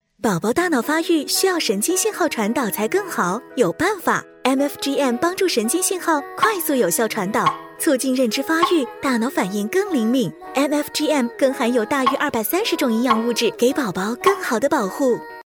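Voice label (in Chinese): 中文广告奶粉; 合生元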